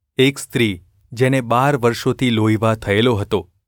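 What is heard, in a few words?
gu ulb mrk, Gujarati; mrk 5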